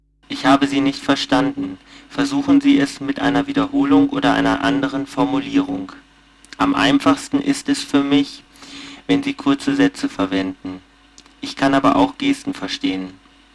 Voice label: Sine fast; SmartKom; Prototypen Stimme